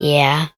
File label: "yeah" girl